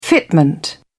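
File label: "fitment", British English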